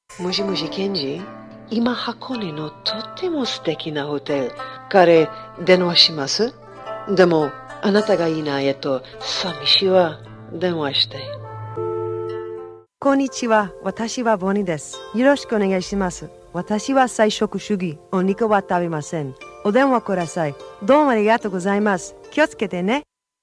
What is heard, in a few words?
Japanese